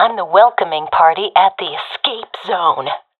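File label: guarding escapezone, professional female; vo; Counter Strike Model Pack; CS:GO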